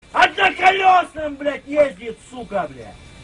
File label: Russian rage